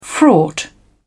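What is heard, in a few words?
"fraught", British English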